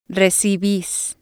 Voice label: "recibis", Spanish